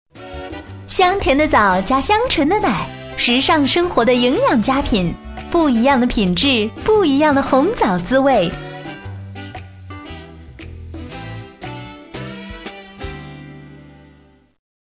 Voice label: 女 轻松广告 红枣; 大气专题

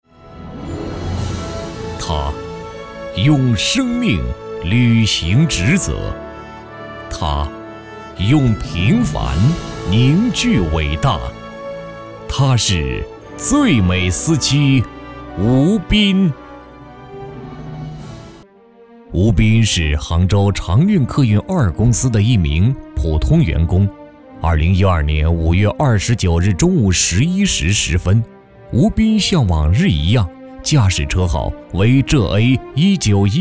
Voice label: 男100-颁奖 最美司机（大气 深情）, 国语男声配音; 2012十大正义人物颁奖; 央视老师

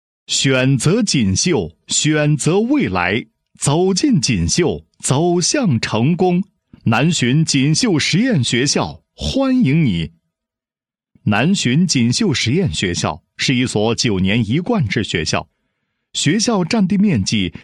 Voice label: 男 学校 招生宣传; 实验学校招生宣传; 锦绣实验学校招生宣传

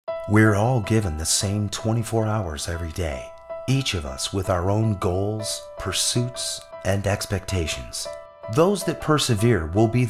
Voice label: 外籍英男 励志鸡汤

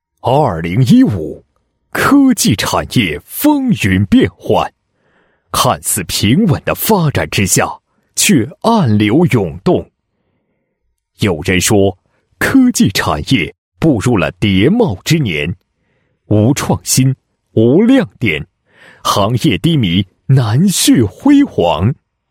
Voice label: 男 颁奖 颁奖盛典视频

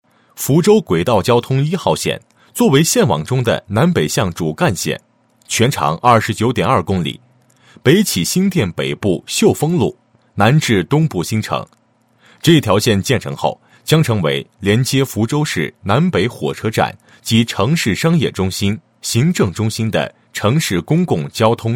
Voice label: 男 解说词 盾构堆填闷推施工工法

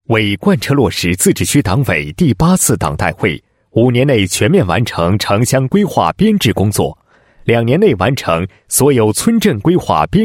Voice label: 男 解说词 村庄规划编制技术规程, 配音案例

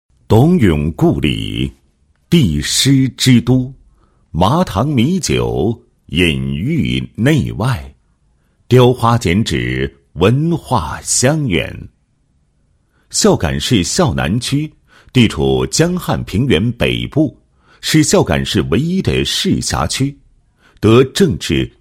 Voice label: 男 旅游; 古镇生态旅游文化休闲景观群落

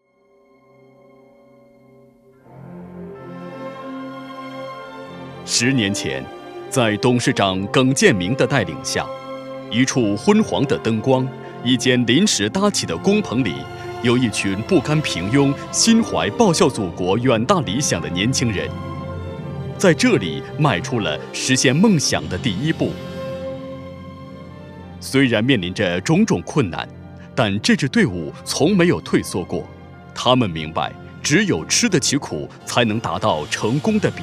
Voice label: 男 地产 男专题, 国语男声配音; 大气专题; 荣盛地产

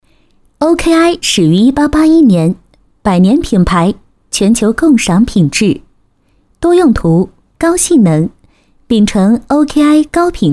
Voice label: 女, 解说, 产品解说; OKI

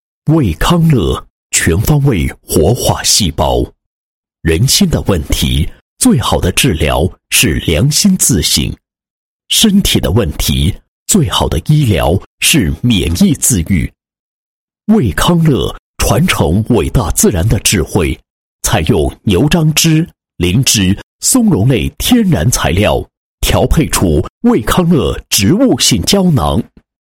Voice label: 男 产品介绍 宣传介绍; 卫康乐植物性胶囊

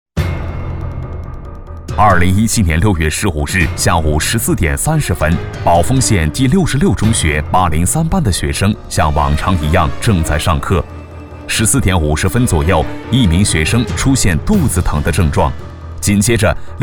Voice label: 男 纪录片 法制节目 紧张叙述感

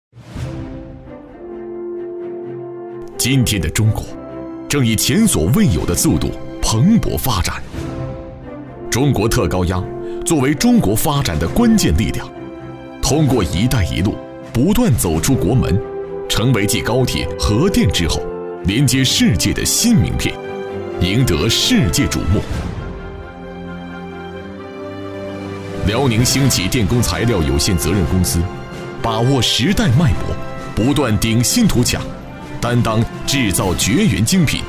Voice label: 纪录片; 中国电路纪事

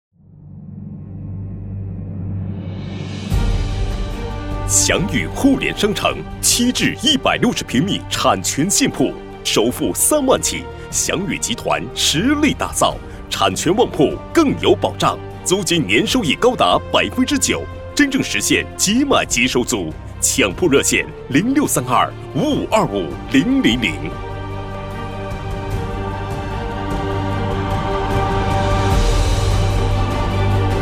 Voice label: 男, 地产广告, 浑厚, 大气

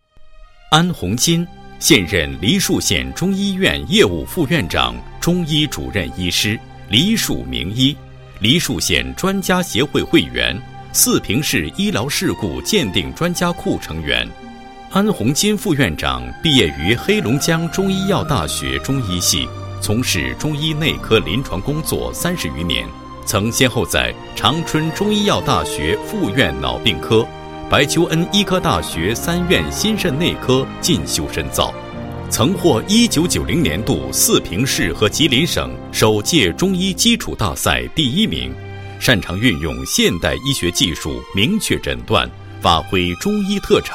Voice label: 男 人物; 名医导航 中医主任医师

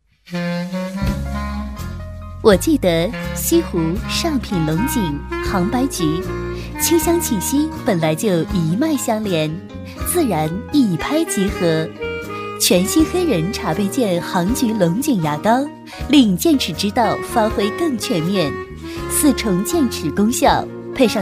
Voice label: 女 广告 牙膏 亲切优雅; 黑人茶倍健牙膏

